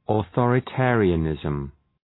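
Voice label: "authoritarianism", English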